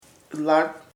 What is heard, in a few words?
old person anciano, chamacoco